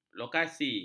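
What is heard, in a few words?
"lokasi", Malay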